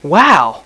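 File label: WOW, male; Spanish Net; MAPAROO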